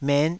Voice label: "met5", taiwanese